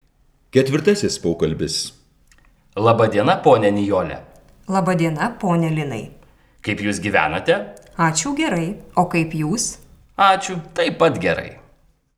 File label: Dialog